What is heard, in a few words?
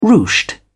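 "ruched", English, British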